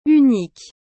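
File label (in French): "unique", French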